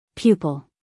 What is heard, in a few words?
"pupil", US female; 1000 hours